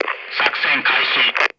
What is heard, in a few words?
Japanese Mission Start; male